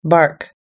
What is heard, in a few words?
"bark", us english